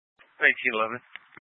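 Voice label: Sheriff Dispatch, Scanner audio recording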